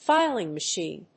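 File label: "filing machine", English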